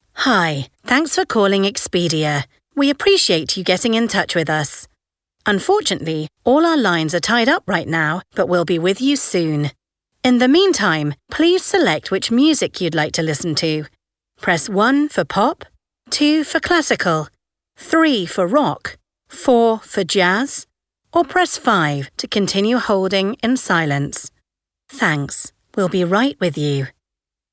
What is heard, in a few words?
ivr; IVR Reel, recorded at home, produced by SonicPond